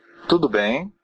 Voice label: how are you, portuguese br; Portuguese (Brazilian); Tudo bem?